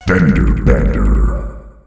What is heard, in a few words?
"Fender Bender", announcer male; Unreal Tournament; ut2004